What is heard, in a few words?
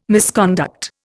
"misconduct", Indian